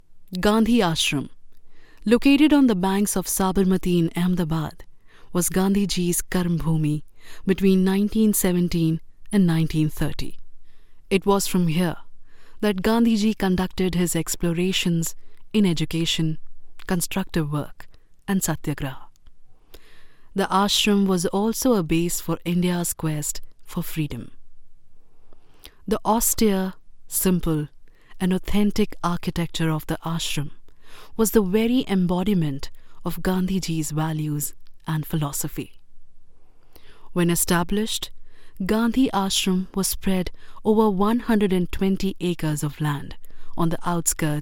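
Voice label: documentary english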